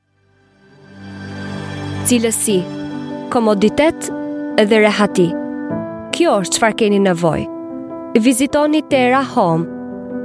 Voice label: Albanian female voice montage, Albanian Female Voice; Montage voice over sample